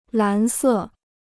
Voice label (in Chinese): "蓝色", Chinese